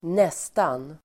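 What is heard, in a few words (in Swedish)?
"nästan", swedish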